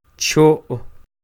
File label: "Cho'", Quiché